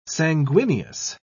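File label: "sanguineous", British English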